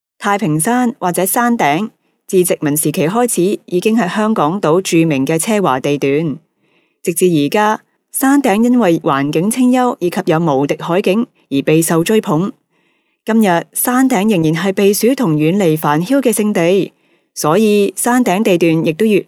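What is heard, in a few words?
Cantonese female corporate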